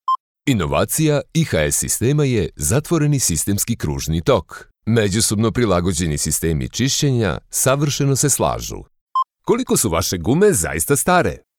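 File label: Serbian, male, elearning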